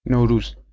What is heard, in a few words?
"Nowruz", Persian